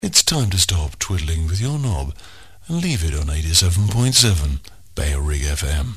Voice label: stop twiddling with your knob (male, no bed), jingles; jingles ads 1994; bailrigg fm; bfm jingles ads RSL 1994